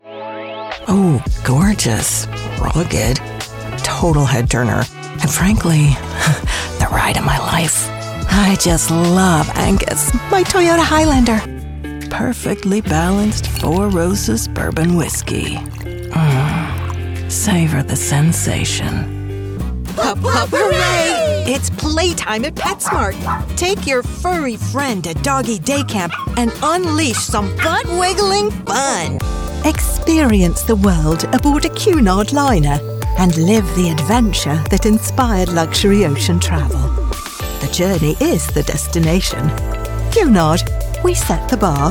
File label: commercial